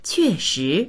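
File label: "que4shi2", Chinese; 确实; 確実である; quèshí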